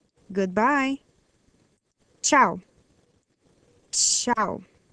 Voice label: Portuguese Good Bye

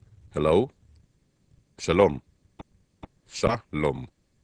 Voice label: Hebrew Hello

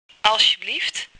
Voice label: Dutch Please

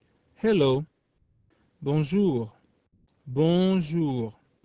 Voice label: French Hello

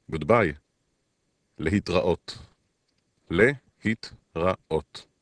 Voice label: Hebrew Good Bye

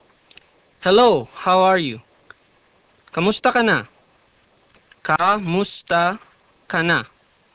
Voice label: Tagalog Hello

